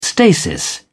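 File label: "stasis", British English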